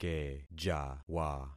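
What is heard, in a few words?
"kejawa", concatenated syllables; Affixing experiment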